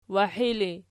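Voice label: "wahi" ergative, Archi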